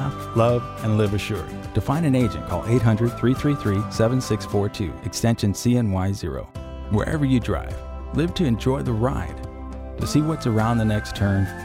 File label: radio commercial auto insurance; preferred mutual